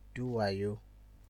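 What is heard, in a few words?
"do wayo", Nigerian Pidgin, male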